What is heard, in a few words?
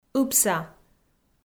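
"ыбса", Komi, female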